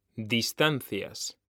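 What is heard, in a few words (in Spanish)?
"distancias", Spanish; mekanta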